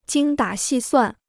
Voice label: "精打细算", Chinese

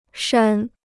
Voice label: "删", Chinese

